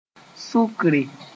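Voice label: "sʋkre", Mooré; sugar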